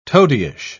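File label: "toadyish", British English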